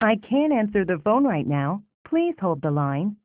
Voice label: On Hold Message; LG L704i; VoiceMemo

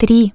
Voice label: "0", Russian Human Voice Tone

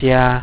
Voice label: "plus" female mandarin; MediaTek; HumanVoice

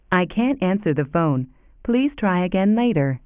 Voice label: On Hold Message; LG L704i; VoiceMemo

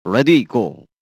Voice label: Ready Go, camera video; SKY IM-S610K

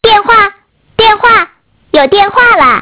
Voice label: Woman Voice; Innostream INNO 55

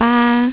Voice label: "3" female mandarin; MediaTek; HumanVoice

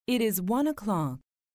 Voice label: Hour voice, phonetones system; GM600

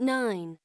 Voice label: lady; Samsung; GT-B7320 Omnia PRO ATT

